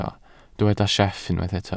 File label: "sieff", Welsh